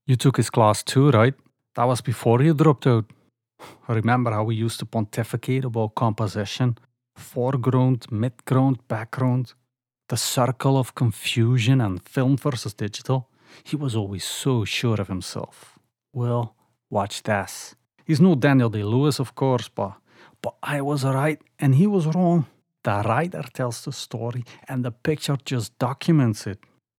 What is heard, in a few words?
Accent Welsh